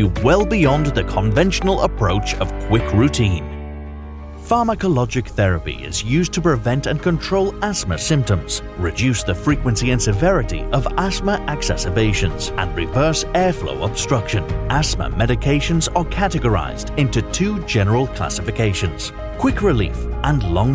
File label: english british medical narration